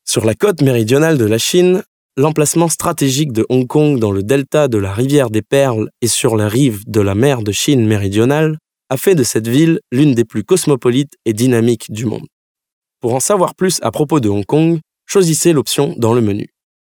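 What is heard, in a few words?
French male corporate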